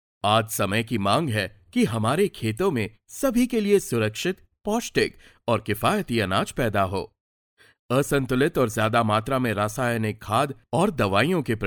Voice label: documentary HINDI